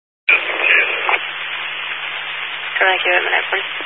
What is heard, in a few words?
Highway Patrol, Phoenix Metro West Area, scanner